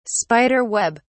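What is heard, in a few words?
"spider web", British English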